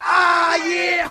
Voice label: "ah yeah", Voice